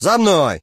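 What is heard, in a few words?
talk, Ukrainian; monsters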